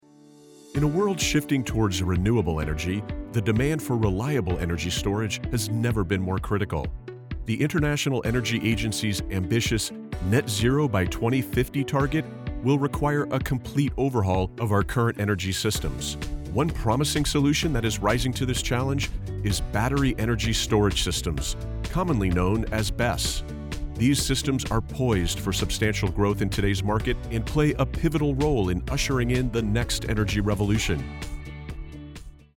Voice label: E-learning